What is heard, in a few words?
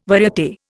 "variate", Indian